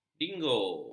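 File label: "dingo", Malay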